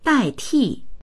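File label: "dai4ti4", Chinese; 代替; dàitì; 代替（する）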